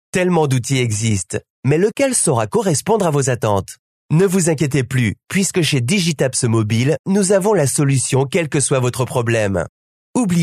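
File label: French, male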